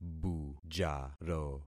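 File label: "bujaro", concatenated syllables; Affixing experiment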